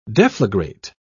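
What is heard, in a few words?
"deflagrate", British English, dictionary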